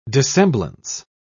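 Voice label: "dissemblance", British English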